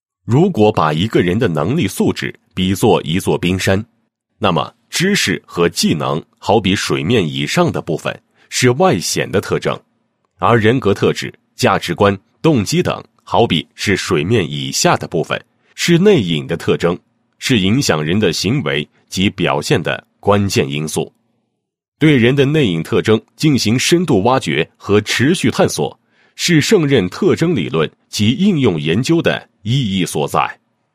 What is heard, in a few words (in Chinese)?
男-专题; 胜任特征专题片